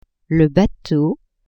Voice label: "bateau", French

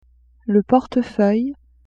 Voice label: "portefeuille", French; vetements

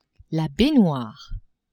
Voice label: "baignoire", French; maison

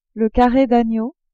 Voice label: "carre d'agneau", french

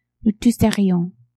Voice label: "nous tousserions", French

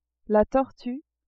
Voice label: "tortue", French

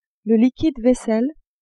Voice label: "liquide vaisselle", French; cuisine

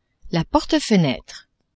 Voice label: "porte-fenetre", French; maison

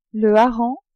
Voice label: "hareng", french

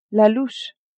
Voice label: "louche", French; cuisine

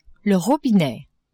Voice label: "robinet", French; maison

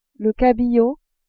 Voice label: "cabillaud", french

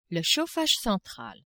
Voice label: "chauffage central", French; maison

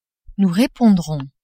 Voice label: "nous repondrons", French

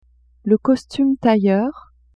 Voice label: "costume tailleur", French; vetements

